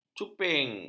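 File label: "cuping", Malay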